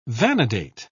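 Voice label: "vanadate", British English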